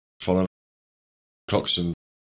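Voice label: dungeness bbc voices male lot, kent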